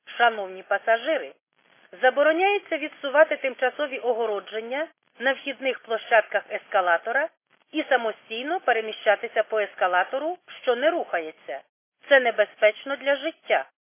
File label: khs st female, subway station